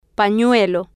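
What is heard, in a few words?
"panuelo", Spanish, female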